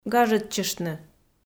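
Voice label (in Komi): "гажӧдчыштны", Komi, female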